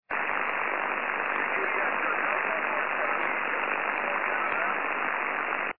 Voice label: CQ DX, 6m; D4C